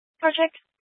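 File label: Incident Tactical 1, Scanner audio recording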